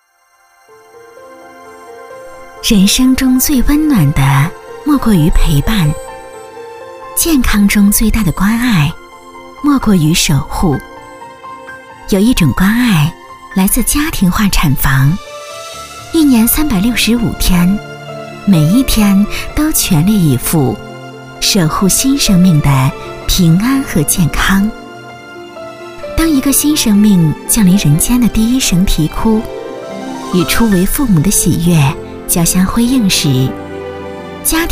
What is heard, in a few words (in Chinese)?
女-旁白; 人生中最温暖的莫过于陪伴